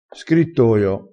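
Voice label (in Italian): "scrittoio", dialettale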